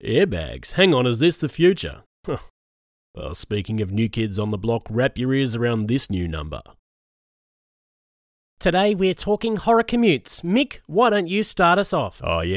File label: Radio Show, Radio Commercials / Wa Govt Speed Cameras Police, Natural Male Voice